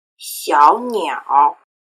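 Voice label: "xiaoniaor" Chinese word; erhua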